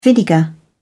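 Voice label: "vinegar", English, British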